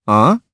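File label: Vox Deny, Japanese; Kibera; kingsraid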